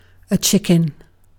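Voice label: "chicken", British English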